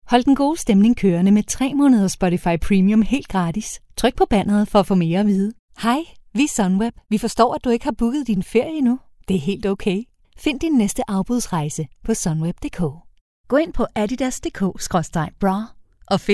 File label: female danish commercial compilation